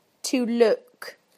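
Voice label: "to look", British English; PictureDictionary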